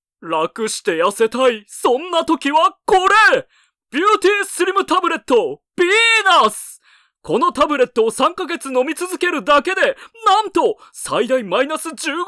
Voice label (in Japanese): ナレーション